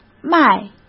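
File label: "迈", Mandarin Chinese; hsk; chinese-flashcards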